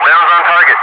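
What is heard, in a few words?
"rounds on target", English speech, Wingman; DCS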